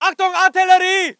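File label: voice german infantry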